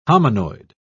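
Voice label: "hominoid", British English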